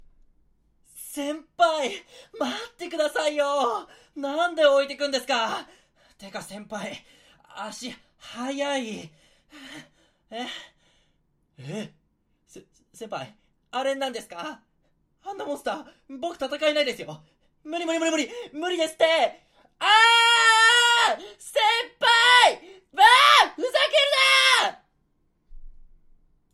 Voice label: FUNNY